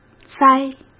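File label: "栽", Mandarin Chinese; hsk; chinese-flashcards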